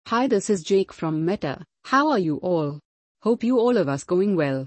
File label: narration; RVC